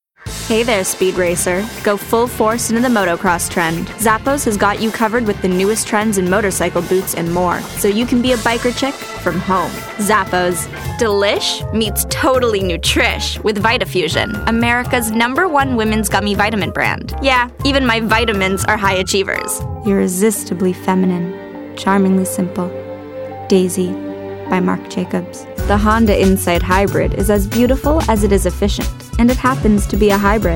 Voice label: Commercial, english female voices american accent